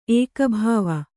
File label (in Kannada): "ಏಕೋಭಾವ", kannada